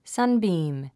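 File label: "sunbeam", English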